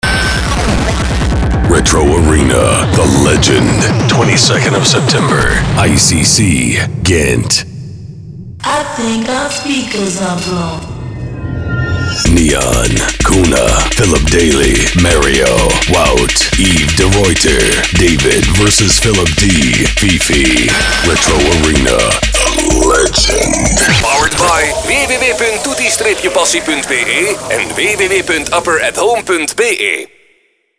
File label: party commercial; the legend plastik